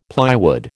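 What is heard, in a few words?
"plywood", American English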